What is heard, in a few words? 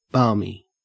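"balmy", Australian English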